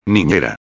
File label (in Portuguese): "ninera", espanhol; palavra do dia